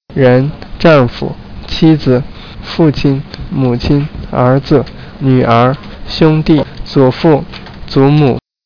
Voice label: chinese; people